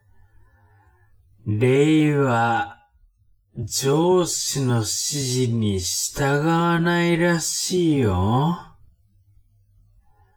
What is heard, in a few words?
man